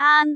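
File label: "aan2", Cantonese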